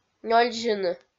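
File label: "нёльзьыны", Komi, female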